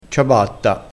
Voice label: "ciabatta", crespinese

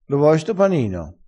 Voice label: "friggersi", crespinese

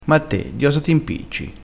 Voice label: "impicciassi", crespinese dialettale

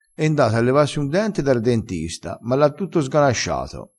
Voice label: "sganasciato", vocabolario dialettale

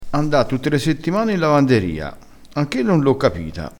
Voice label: "ella", vocabolario dialettale

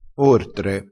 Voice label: "ortre", crespinese

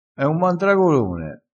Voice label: "mandragolone", crespinese, vocabolario dialettale

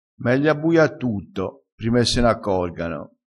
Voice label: "abbuia", Crespinese

